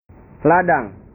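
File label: "ladang", Indonesian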